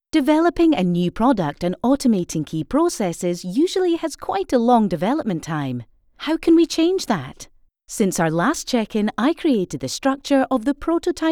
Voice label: elearning Scottish corporate natural naration